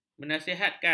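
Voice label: "menasihatkan", Malay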